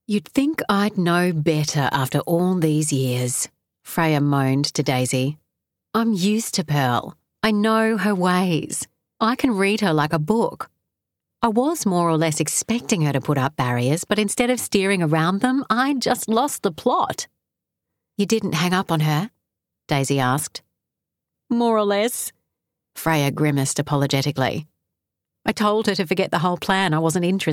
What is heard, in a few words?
Audiobook Sample; Audiobook Narration; Chapter 9; The Sisters Gift